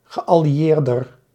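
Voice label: "geallieerder", Dutch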